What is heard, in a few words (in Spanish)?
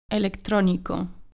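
"electronico", Spanish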